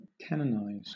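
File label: "canonize" English, British accent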